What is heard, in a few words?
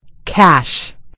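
"cash", American English; gcompris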